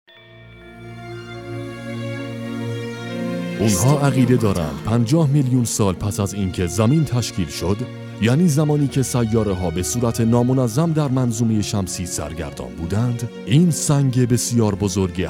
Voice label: documentary